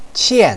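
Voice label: "qian4", Chinese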